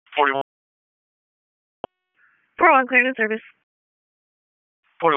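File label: EMS Dispatch; wake p25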